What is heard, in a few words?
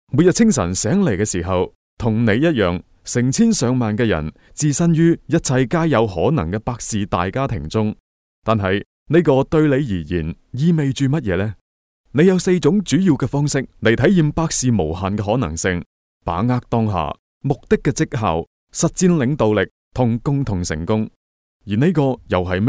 male cantonese voice over talent narrative